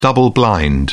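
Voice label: "double blind", British English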